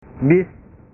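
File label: "bis", Indonesian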